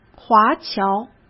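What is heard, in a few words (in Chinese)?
"华侨", Mandarin Chinese; chinese flashcards; hsk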